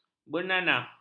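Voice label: "bernanah", Malay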